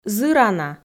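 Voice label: "зырана", Komi, female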